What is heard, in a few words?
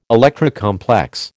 "Electra complex", American